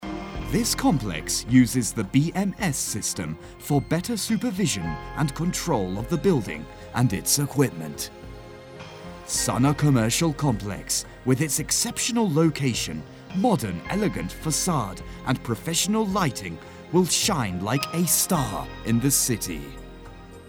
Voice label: narration